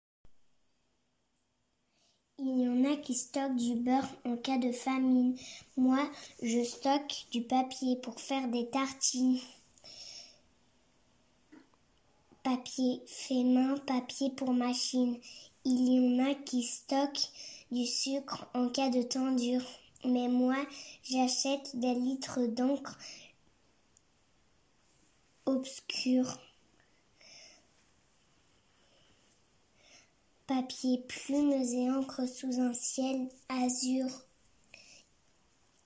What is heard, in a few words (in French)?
Poème